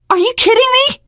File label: reaction negative, teen girl; source engine; left 4 dead 2; survivor